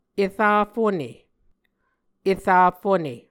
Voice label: "era fone", Muscogee